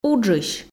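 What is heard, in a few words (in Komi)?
"уджысь", Komi, female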